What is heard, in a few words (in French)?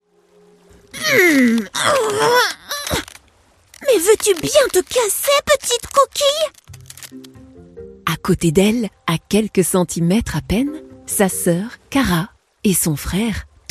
Personnages conte enfant, narration